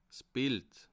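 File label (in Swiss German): "bild", Bas Rhin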